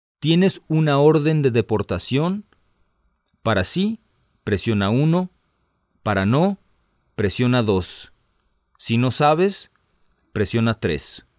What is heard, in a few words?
Spanish, hotline